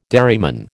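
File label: "dairyman", American English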